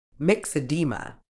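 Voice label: "myxoedema", British English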